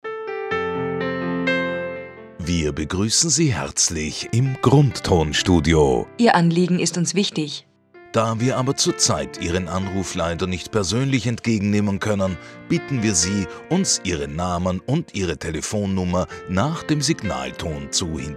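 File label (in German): Sprecherpaar Hörprobe; Telefonansage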